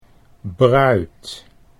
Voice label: "bruid", Dutch